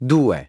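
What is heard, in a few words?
"two", English; iOS 4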